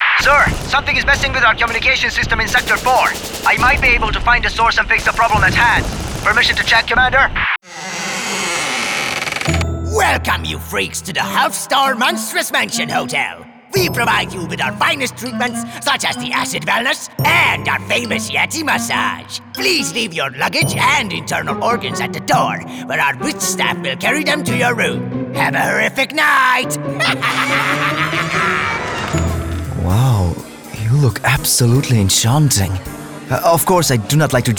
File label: Animation Voice Over